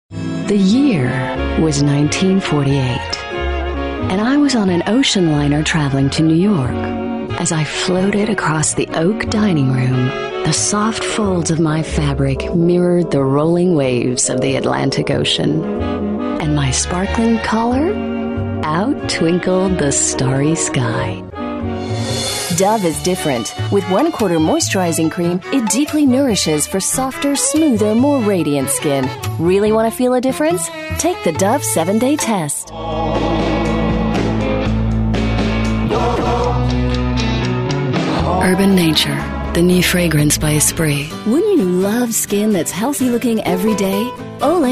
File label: commercials